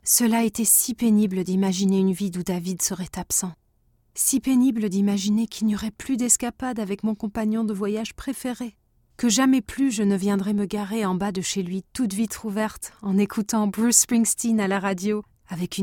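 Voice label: French, narration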